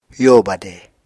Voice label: "yo bade", Apatani